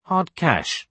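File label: "hard cash", British English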